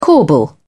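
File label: "corbel", British English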